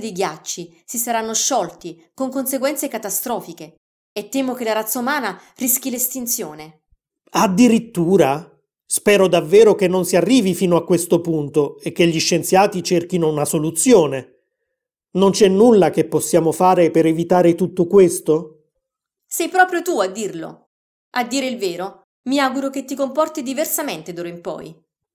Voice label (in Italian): Easy Italian Come rispettare l'ambiente; Italianglot; Easy Italian Pack